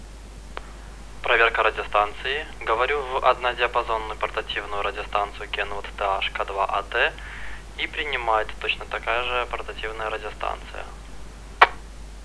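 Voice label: dve portativki Kenwood, two portable radios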